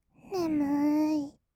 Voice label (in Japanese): "ねむーい", kawaii voice; 1200 kawaii voice!!; 色々なワンショット